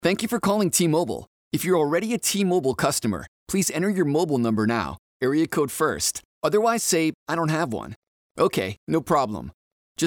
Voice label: Phone Dry Reads